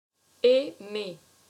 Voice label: "Aimer", french; to love: aimer: j'aime